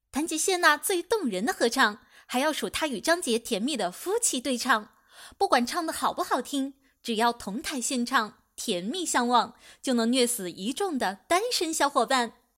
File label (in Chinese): 娱乐新闻配音